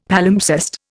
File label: "Palimpsest", Indian; Dictionary